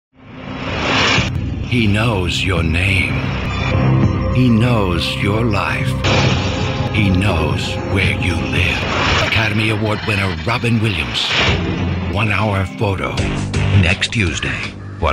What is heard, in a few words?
movie trailers, voiceover